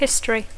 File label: "history", English; història; antecedents